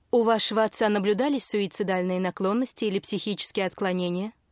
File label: policewoman